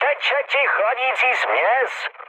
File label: damaged water, speech cze, mood med; War Thunder